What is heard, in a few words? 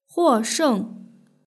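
"huosheng" Chinese word; beishuiyizhan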